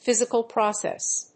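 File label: "Physical Process", English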